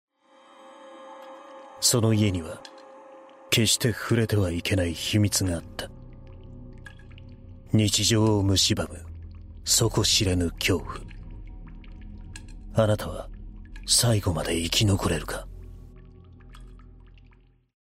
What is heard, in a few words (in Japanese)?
ホラーナレーション